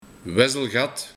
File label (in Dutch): "Wezzelgat", Onrustig iemand; Wezzelelgat